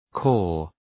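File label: "caw", English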